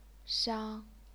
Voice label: "shang1", chinese pinyin

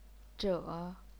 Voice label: "zhe3", chinese pinyin

